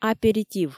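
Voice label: "аперитив" Komi, female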